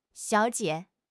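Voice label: "xiao3 jie5", Chinese; HSK1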